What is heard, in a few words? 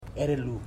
"snake", Ipili